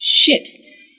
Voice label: "shit", Voice expletives